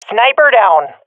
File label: i killed sniper, swat fem; vo; Counter Strike Model Pack; CS:GO